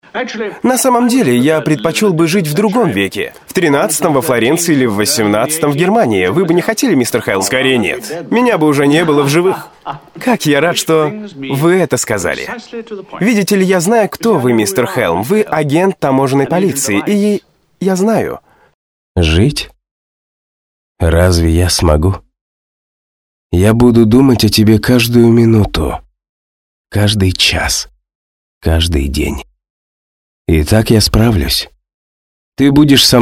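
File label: Russian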